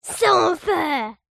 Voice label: human child boy 8 english says so unfair